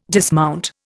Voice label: "dismount", Indian